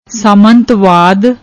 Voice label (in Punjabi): "saamantwaad", Punjabi; ਸਾਮੰਤਵਾਦ